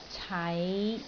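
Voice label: "cai5", Cantonese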